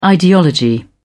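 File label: "ideology", English, British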